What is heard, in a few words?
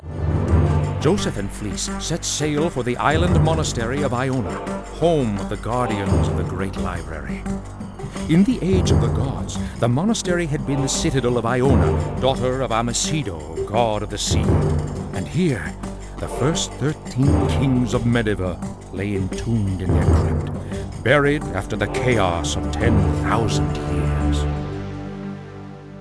narration; Summoner; PS2; cutscenes